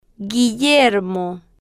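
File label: "guillermo", slow, Spanish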